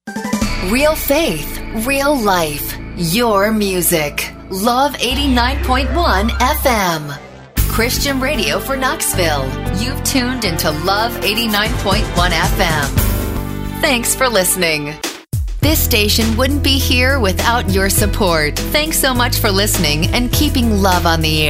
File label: Christian Imaging VO